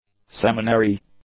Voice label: "seminary", English; nouns

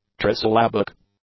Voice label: "trisyllabic", English; adjectives

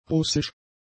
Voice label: "russisch", German; adjectives

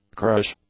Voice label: "currish", English; adjectives

